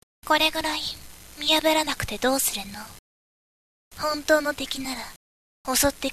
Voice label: girl